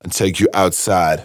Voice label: Phrase Pitched; Vengeance Samples

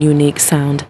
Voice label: LoFi Words, LoFi Vocals

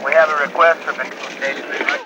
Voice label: LoFi Phrases, LoFi Vocals; Vengeance Samples

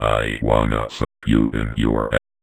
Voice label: Vocoder Phrases; Vengeance Samples

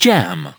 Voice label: LoFi Words, LoFi Vocals

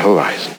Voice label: LoFi Words, LoFi Vocals

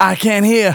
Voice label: phrase male rap; Vengeance Samples

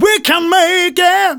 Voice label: Phrase Male; Vengeance Samples

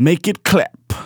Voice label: Phrase Male Rap; Vengeance Samples

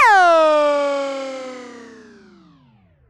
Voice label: phrase female

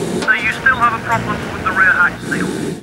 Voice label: LoFi Phrases, LoFi Vocals; Vengeance Samples

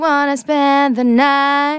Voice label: Phrase Female; Vengeance Samples; Phrases

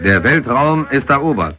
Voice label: LoFi Phrases, LoFi Vocals; Vengeance Samples

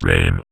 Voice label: Vocoder Words; Vengeance Samples

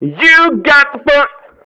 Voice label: male fx vox, vocal house, c#; PS-28 Vocal House Samples

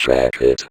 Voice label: Vocoder Words; Vengeance Samples

